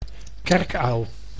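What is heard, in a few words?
"kerkuil", Dutch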